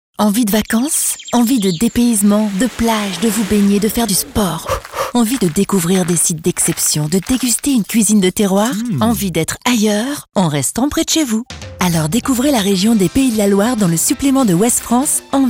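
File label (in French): calme tonique, comédienne voix off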